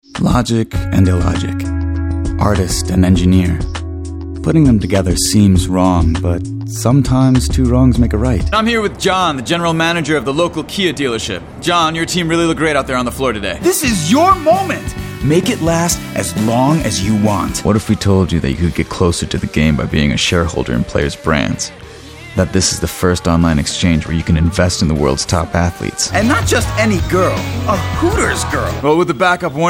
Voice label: commercial, men